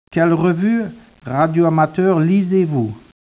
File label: speech, French